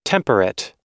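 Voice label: "temperate", US English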